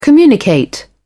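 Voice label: "communicate", British English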